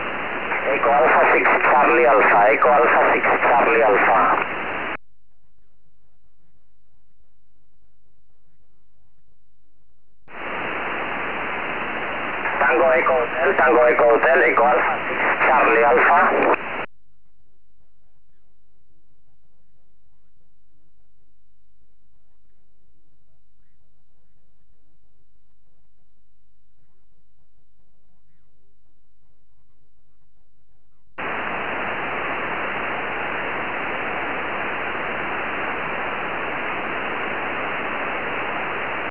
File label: qso 4m